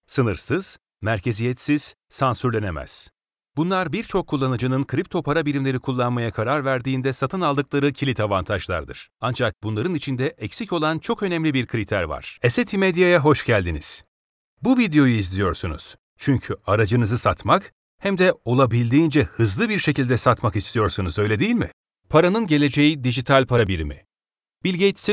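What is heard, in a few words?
Turkish male; lektoring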